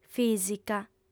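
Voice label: "fìsica", Sardinian, female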